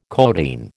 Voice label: "codeine", American